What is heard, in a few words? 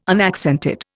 "unaccented", Indian